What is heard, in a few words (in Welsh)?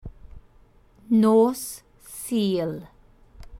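"nos sul", Welsh; Sunday evening/night; Nights of the Week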